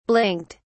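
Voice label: "blinked", British English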